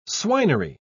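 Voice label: "swinery", British English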